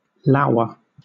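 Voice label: "lour", British English; pronunciations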